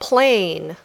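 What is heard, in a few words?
"plane", English; Transportation (Transporte)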